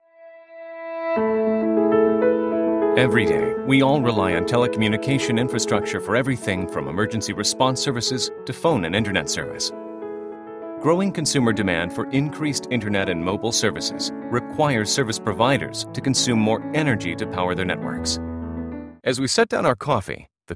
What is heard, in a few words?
Narration